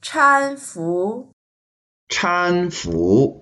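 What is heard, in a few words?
"chan1fu2", Mandarin Chinese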